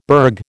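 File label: "burg", American English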